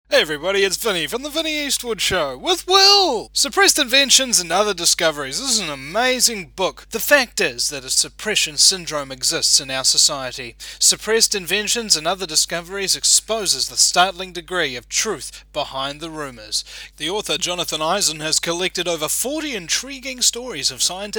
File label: radio ad